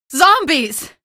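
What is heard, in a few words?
citizen alert zombie, female; vo; npc; source engine; episodic; episode 1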